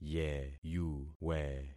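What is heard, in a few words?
"yeyuwe", concatenated syllables; Affixing experiment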